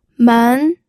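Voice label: "men", second tone, pinyin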